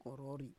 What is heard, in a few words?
"korori", Roon